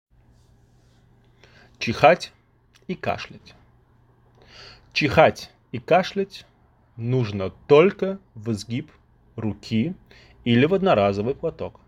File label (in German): Russisch